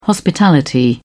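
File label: "hospitality", British English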